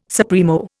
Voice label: "supremo", Indian

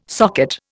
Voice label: "socket", Indian

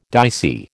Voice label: "dicey", American

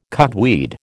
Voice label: "cudweed", American

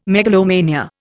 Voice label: "megalomania", Indian

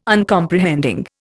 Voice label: "Uncomprehending", Indian

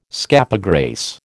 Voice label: "scapegrace", American

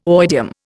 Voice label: "oidium", Indian

